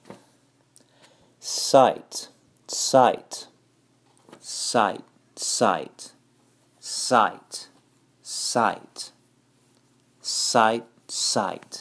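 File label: Sight site, English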